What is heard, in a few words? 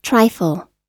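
"trifle", US English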